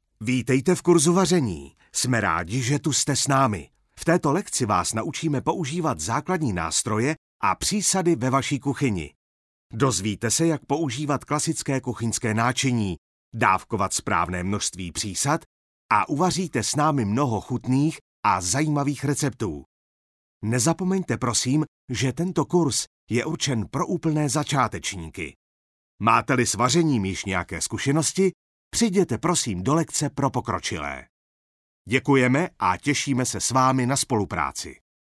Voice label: male Czech